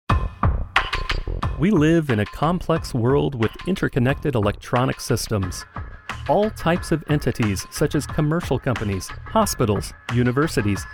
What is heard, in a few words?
E-Learning